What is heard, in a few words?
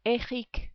"Eric", French